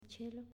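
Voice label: "Cielo", Italian, female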